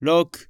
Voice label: Japanese Number